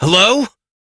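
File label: Voice, English; New War; Operator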